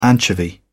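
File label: "anchovy", English, British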